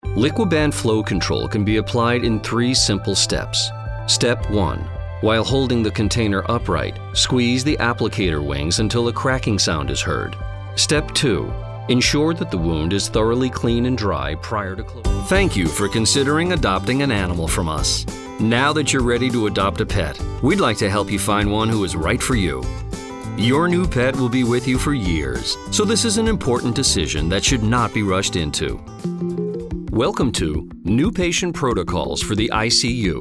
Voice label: eLearning